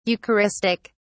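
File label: "eucharistic", British English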